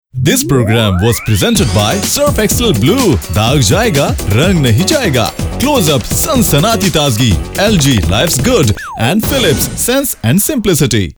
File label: TV Credit Lines Hindi